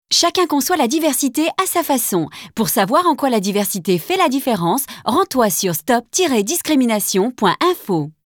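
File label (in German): French Werbung Dynamisch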